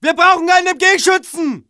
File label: ger move up mg, german